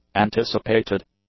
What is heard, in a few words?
"anticipated", English; adjectives